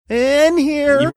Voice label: saying in here funny drawn out